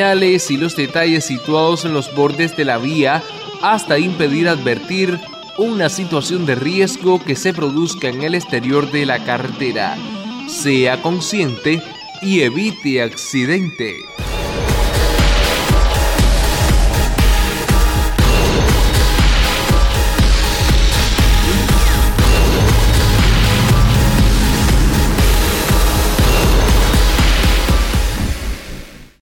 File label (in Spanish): spot efecto tunel